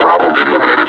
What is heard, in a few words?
announce kill player, combine suppressor; Half Life Alyx